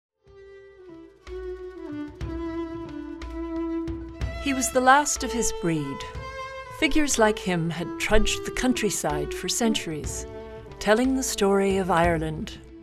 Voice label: Irish storyteller